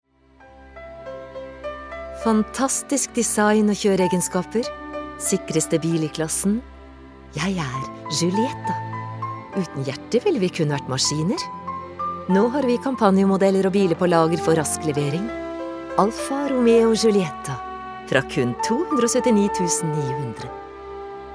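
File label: Norwegian soft sell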